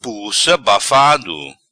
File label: "Pussa Bafado", Macanese